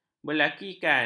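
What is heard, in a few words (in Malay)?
"berlakikan", Malay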